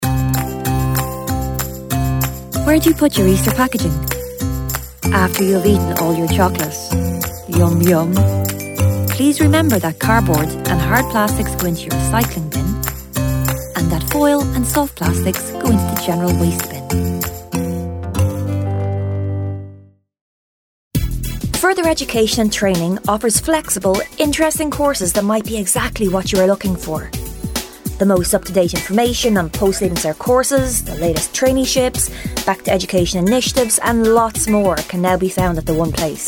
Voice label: ADVERT

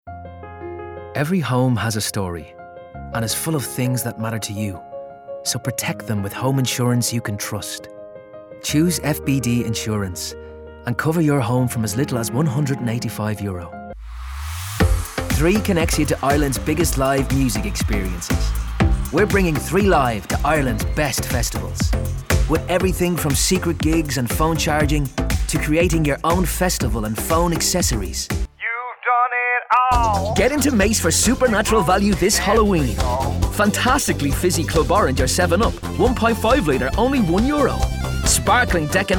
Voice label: commercial

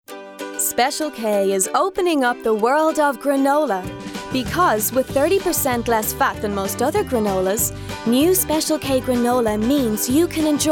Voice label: commercial; commercial demo

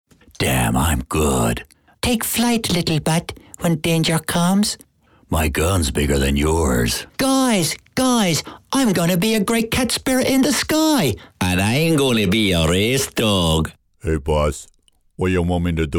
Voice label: animation

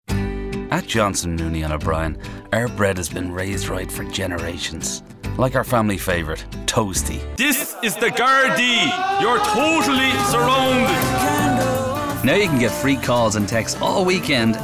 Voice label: voice demo

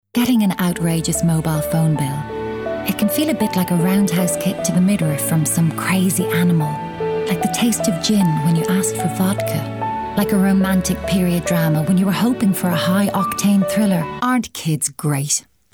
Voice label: voice demo

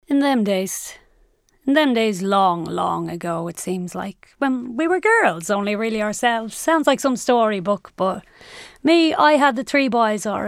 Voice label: narration

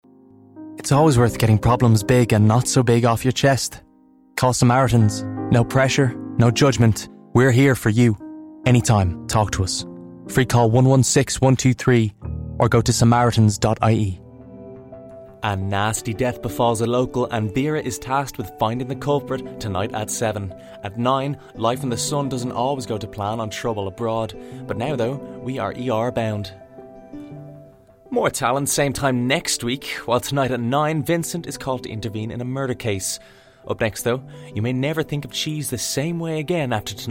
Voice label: commercial

